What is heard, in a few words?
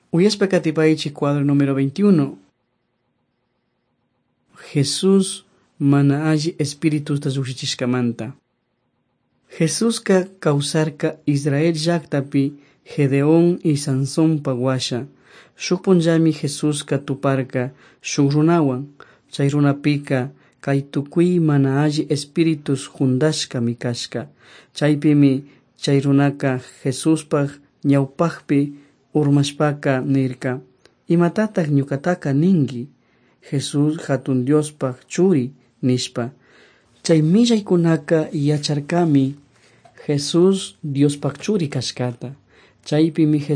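quichua chimborazo, drama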